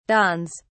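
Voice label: "dawns", English, British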